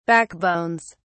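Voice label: "backbones", British English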